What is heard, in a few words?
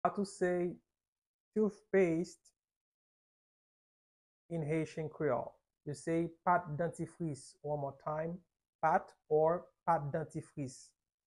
Pat dantifris, Haitian Creole pronunciation by a native Haitian tutor; How to say Toothpaste in Haitian Creole